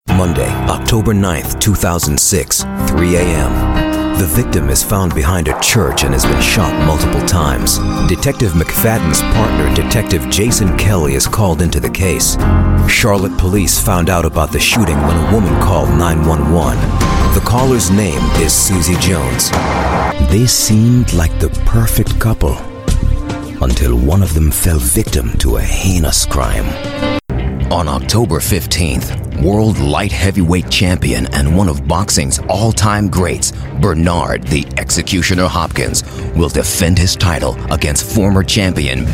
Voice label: US English Show Narration Documentary